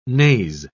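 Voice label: "naze", British English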